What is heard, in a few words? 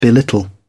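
"belittle", British English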